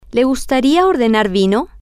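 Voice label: would you like to order wine, Spanish, female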